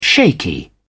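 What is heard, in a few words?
"shaky", British English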